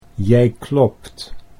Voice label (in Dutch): jij klopt, Dutch